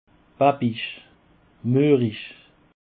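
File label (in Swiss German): "babig", Alsatian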